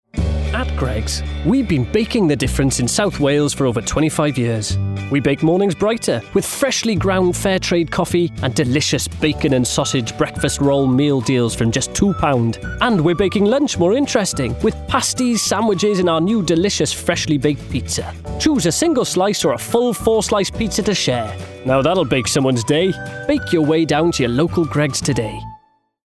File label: COMMERCIAL; Greggs